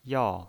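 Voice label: "yao" Mandarin Chinese pinyin voice, fourth tone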